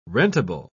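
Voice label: "rentable", English, British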